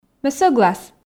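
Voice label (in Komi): ме сӧглас, Komi, female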